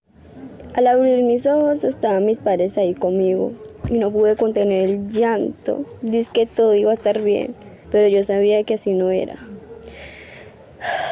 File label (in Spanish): Adolescente; casting trineo tv